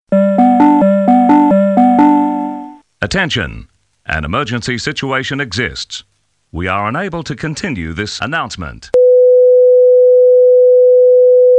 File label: Announcement